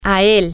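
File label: Spanish, to him, female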